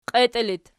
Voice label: "qietii", Archi